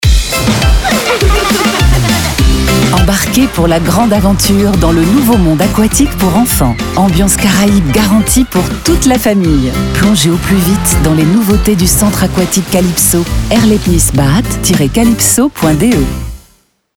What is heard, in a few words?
FR; french voice-over talent female; Calypso Baden unter Palmen